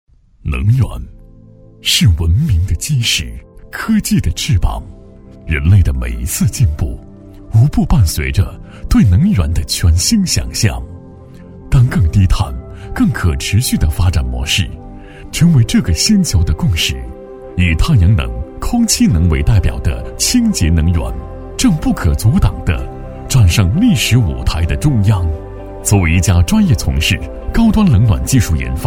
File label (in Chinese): 男 低沉科技感; 北冰洋科技